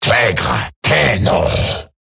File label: Player Spotted Male; en; Dialog; Barks; Battle; Grineer; Eximus